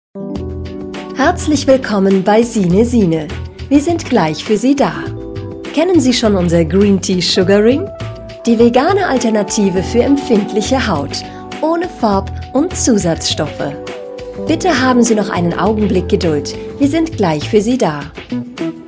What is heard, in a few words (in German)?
deutsch